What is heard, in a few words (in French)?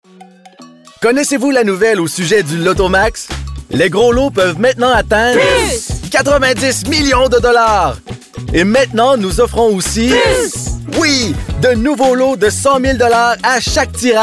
publicite radio, voix-off